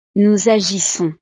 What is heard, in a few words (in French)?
"nous agissons", frances